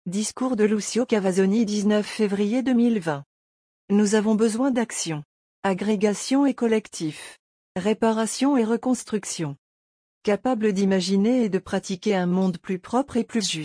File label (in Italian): Francese